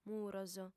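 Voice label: "muros" Sardinian, female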